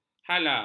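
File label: "hala", Malay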